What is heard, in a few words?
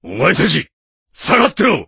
voice, dubbing